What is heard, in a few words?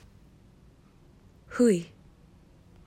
"hui", Māori